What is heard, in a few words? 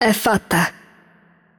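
Italian game outro; VO; bloom minigame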